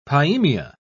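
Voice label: "pyemia", British English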